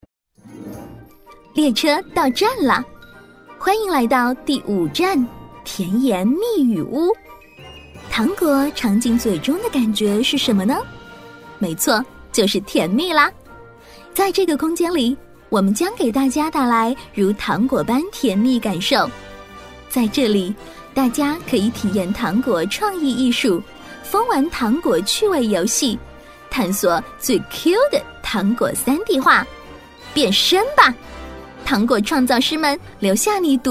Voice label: 广播提示; 第五站 甜言蜜语屋

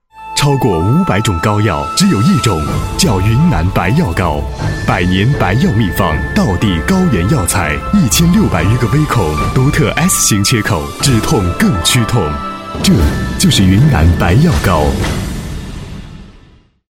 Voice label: 广告 时尚; 品牌形象; 云南白药膏

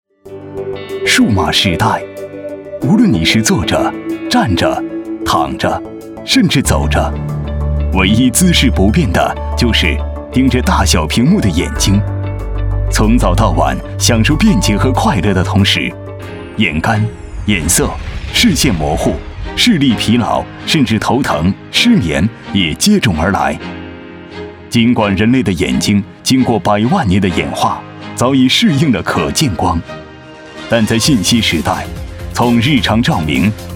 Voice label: 专题 产品 舒缓, 男国; 万新新视力

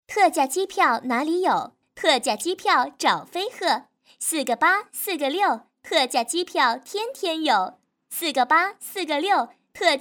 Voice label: 广告 旅游 机票 可爱

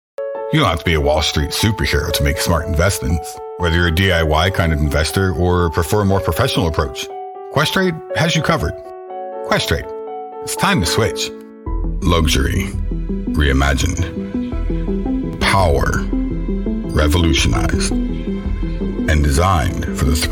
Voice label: 外籍男英 外语 美式英语 多种商业广告类

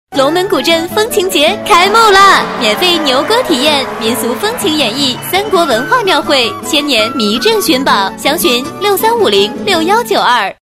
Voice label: 广告 旅游 欢快; 龙门

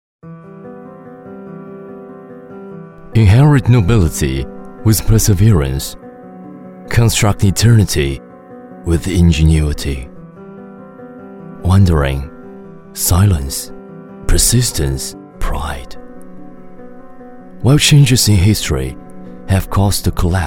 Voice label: 男英 广告 家居家电 卫浴; 英语; Mestre卫浴

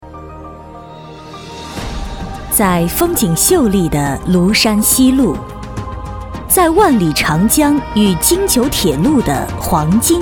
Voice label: 专题 医院 中医院 稳重; 九江县中医院

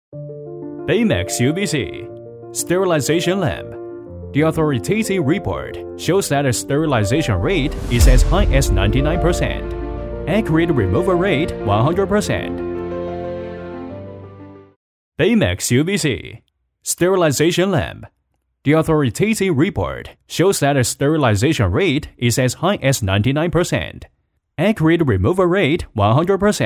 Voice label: 男英 外语 国内英语 MG动画 lamp

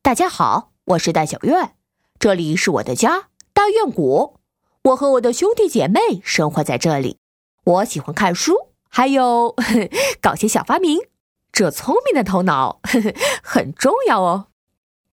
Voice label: 动画 角色 一人四角色

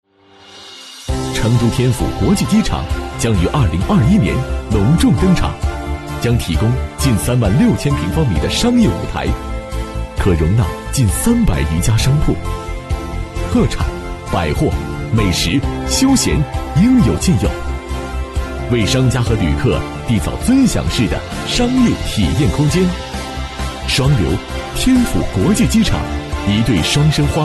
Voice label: 男国 专题 企业 活力; 成都天府机场

